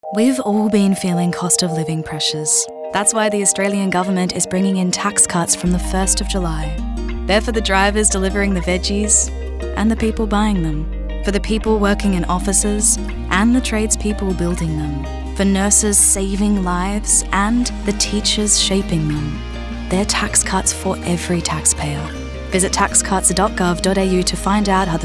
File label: radio; aus gov tax cuts